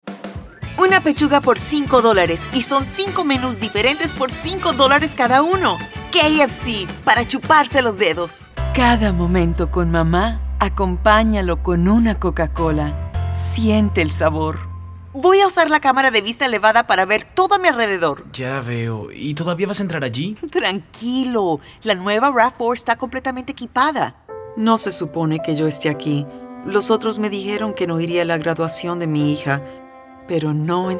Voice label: Commercial

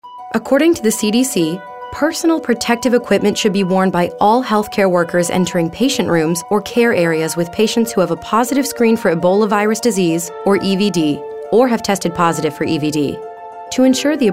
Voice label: informative technical

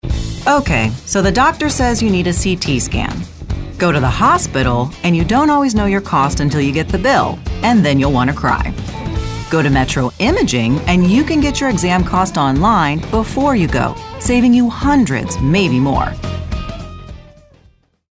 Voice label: Metro Imaging

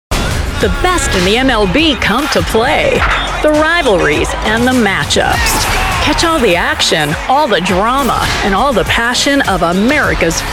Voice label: Promo

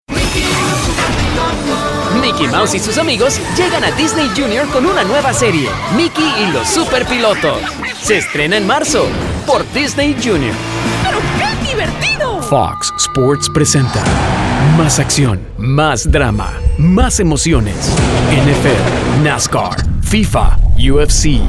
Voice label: Promos

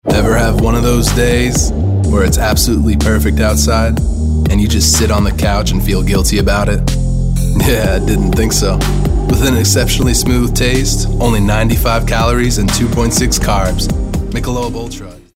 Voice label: attitude

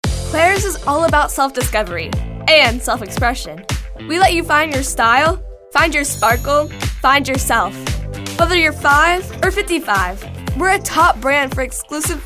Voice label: perky

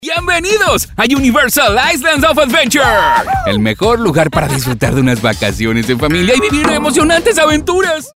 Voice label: Commercial - Spanish Latin

